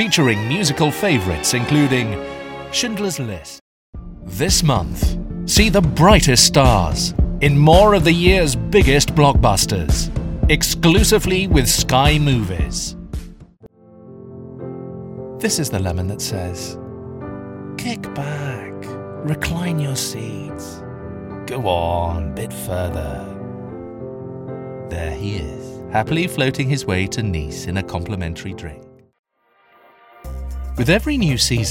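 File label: British English General; voice demos; GoLocalise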